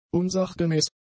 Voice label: "unsachgemäß", German; adjectives

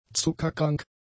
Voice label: "zuckerkrank", German; adjectives

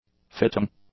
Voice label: "fitting", english; adjectives

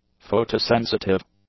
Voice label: "photosensitive", english; adjectives

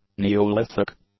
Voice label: "neolithic", english; adjectives

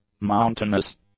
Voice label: "mountainous", English; adjectives

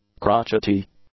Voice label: "crotchety", English; adjectives

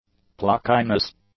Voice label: "pluckiness", English; nouns

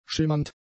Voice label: "schimmernd", German; adjectives

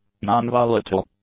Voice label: "non-volatile", english; adjectives

